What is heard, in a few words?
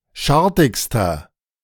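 "schartigster", German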